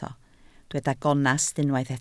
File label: "gonest", Welsh